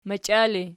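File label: "machia" ergative, Archi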